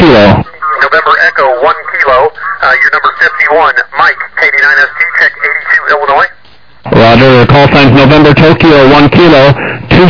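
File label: upper sideband 21MHz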